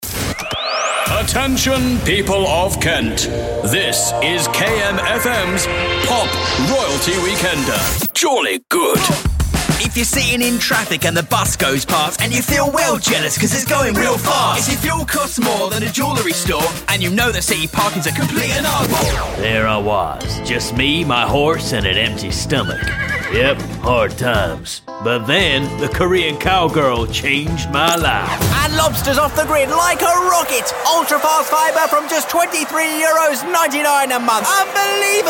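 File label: characters, Authentic Youthful British Voiceover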